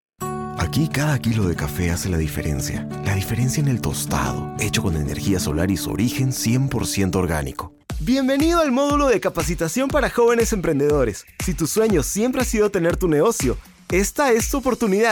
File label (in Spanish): Comercial PERU